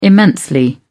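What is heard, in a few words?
"immensely", British English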